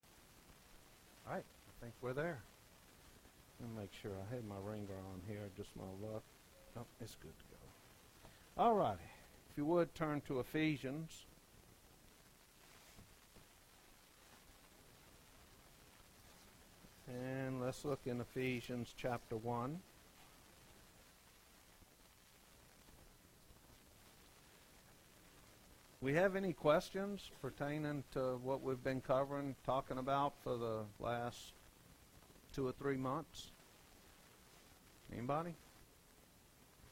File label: preaching